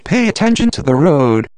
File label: emotional